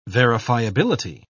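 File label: "verifiability", British English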